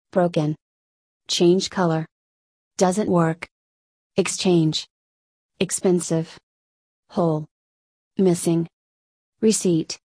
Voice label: usa; shopping problems